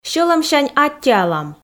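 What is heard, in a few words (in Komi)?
Komi, сьӧлӧмсянь аттьӧалам, female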